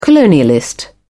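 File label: "colonialist", British English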